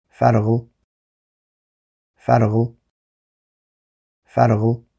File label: "Fearghal", Irish; Irish names for boys